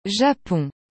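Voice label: "Japon", French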